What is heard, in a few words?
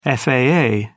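"faa", British English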